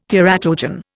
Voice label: "teratogen", Indian

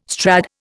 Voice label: "Strad", Indian

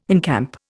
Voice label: "encamp", Indian

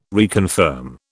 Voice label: "reconfirm", British

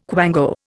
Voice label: "quango", Indian

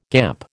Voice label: "gamp", American

